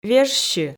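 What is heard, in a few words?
"вежсьы", Komi, female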